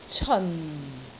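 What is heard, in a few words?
"ceon4", Cantonese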